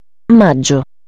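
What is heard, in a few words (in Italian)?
"maggio", Italian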